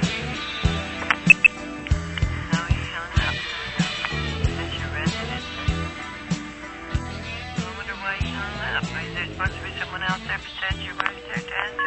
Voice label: international call